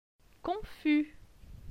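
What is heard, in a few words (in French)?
"Confus", French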